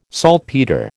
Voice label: "saltpetre", American